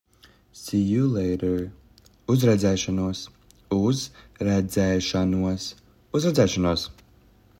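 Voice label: "See you later", Latvian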